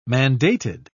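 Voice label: "mandated", British English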